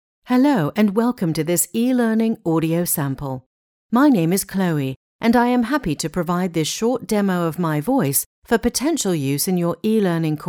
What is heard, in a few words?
Formal, British accent, e-learn